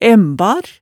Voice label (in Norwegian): "æmbar", hemsedalsmaalet, tale